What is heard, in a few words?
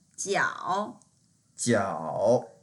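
"jiao3" Mandarin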